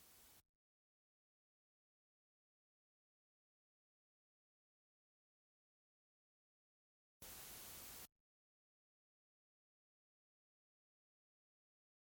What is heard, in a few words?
True Visual IVR Welcome